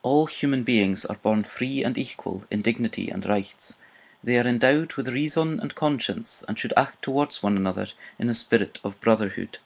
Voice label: udhr en sco